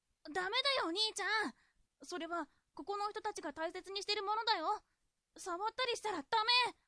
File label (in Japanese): dansei